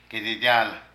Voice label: Huarache, Zapoteco de Petapa; Ropa; Vocabulary

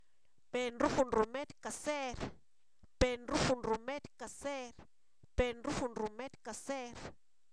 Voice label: curandero, Zapoteco, san miguel albarradas; humano; INALI

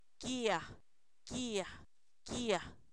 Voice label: lluvia, Zapoteco, san miguel albarradas; fenomenos naturales

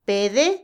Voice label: narrar, contar, Otomí, mujer; Habla; INALI

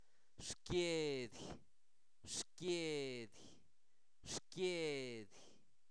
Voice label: Zapoteco Albarradas, santo domingo albarradas; cuerpo; ombligo; INALI

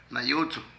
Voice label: Podrido, Zapoteco de Petapa; Vocabulary

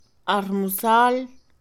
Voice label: desayuno, Mixe Mujer; Comida; INALI

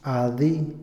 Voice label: "pedir", Otomí, hombre; Habla; INALI

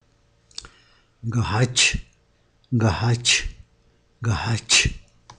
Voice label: siete, Zapoteco San Pablo Villa de Mitla; números; Vocabulary; sta maria albarradas

